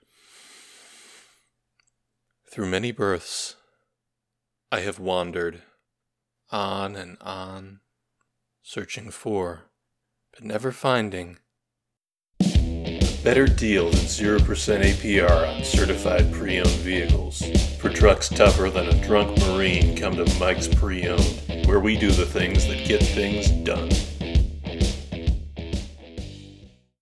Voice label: Voices through many births with music; The Looker

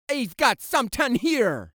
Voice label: Voices Jamaican

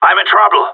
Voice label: Radio Taking fire

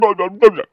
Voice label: Male Talk

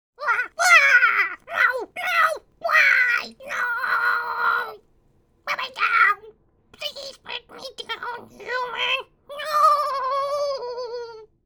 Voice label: Male Beg

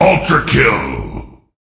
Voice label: Voices Announcer Reward

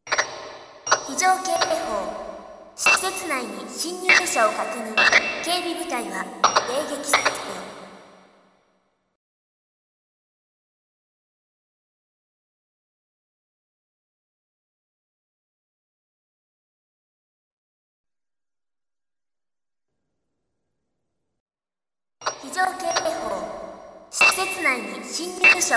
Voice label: SYSTEM VOICE; Armored Core Masters of Arena

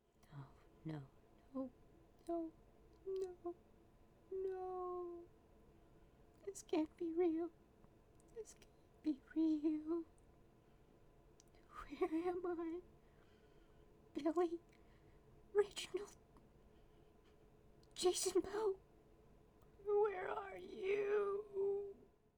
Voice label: Voices Female Idle

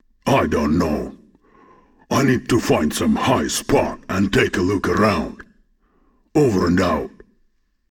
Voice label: Voice Dialog; We Are the Dwarves

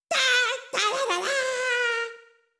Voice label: Fanfare (French)

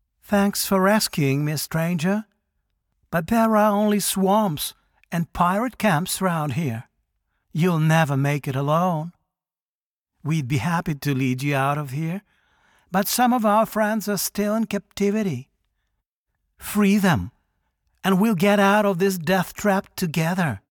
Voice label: Voice Dialog; we are the dwarves gamerip 2016

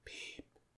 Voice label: Voices quiet; The Looker

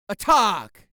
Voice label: Jamaican Attack

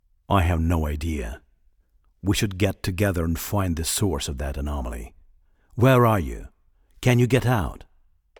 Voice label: Voice Dialog; we are the dwarves gamerip 2016

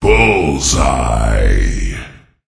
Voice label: Voices Announcer Reward

